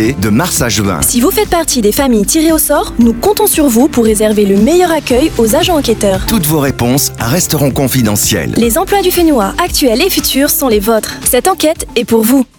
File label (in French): spot radio en français